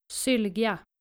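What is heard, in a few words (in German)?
"Sylgja", dänische Mädchennamen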